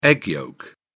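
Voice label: "egg yolk", British English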